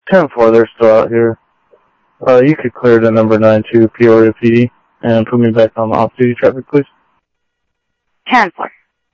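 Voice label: Sheriff West County, scanner audio trunk; ThompsonPeakScot; MCSO - WEST; Sheriff-WestCnty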